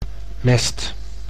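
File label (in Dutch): "mest" Dutch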